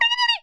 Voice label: teacher noise